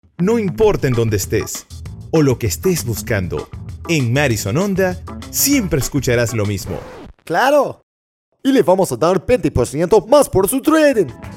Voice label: spanish latin american promos